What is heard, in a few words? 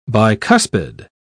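"bicuspid", British English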